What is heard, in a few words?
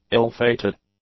"ill-fated", English; adjectives